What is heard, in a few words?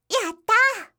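"yattaa", Japanese, yorokobu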